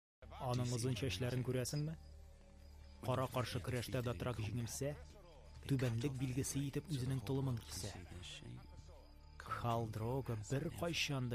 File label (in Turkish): tatarca seslendirme erkek sesi